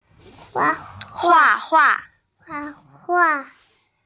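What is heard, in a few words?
"hua4hua4", Mandarin Chinese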